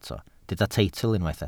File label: "teitl", Welsh